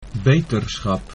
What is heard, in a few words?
get well soon, dutch